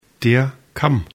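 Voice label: "kamm", German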